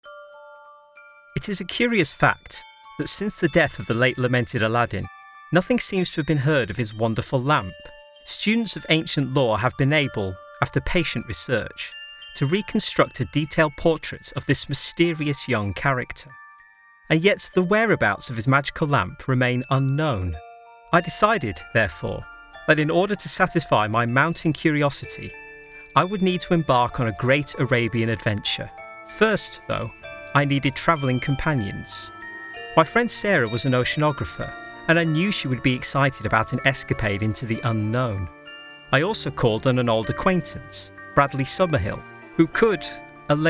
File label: Audiobook